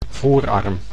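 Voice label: "voorarm", Dutch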